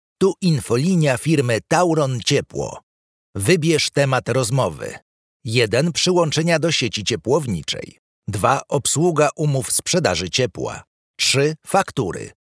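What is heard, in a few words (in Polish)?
centrala telefoniczna IVR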